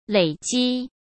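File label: "累积", Mandarin Chinese; chinese flashcards; hsk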